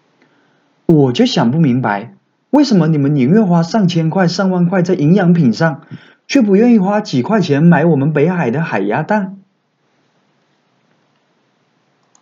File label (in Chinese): 广西口音带货